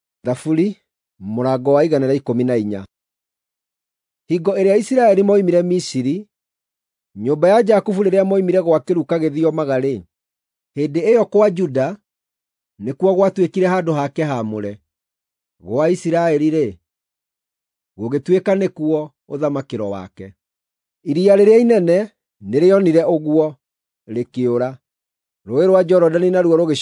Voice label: psalms, gikuyu drama